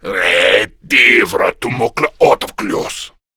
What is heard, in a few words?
Patrol, Male